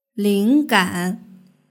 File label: "linggan", Chinese; duiniutanqin